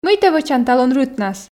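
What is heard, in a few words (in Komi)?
мый тэ вӧчан талун рытнас, Komi, female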